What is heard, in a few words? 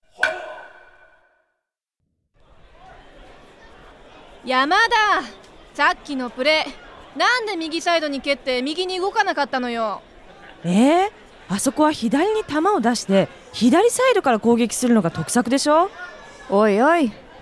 dialog; JapanesePod101; Beginner Season 1